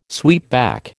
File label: "sweepback", American